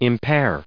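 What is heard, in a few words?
"impair", US English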